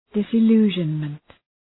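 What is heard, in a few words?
"disillusionment", English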